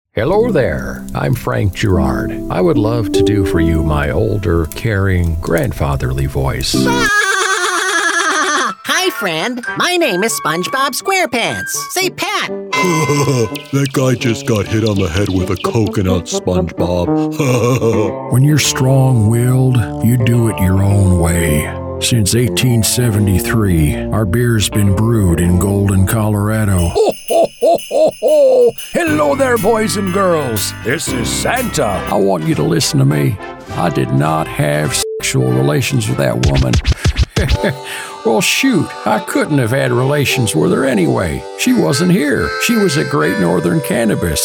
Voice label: Character